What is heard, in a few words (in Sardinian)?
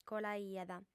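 "colaiat", female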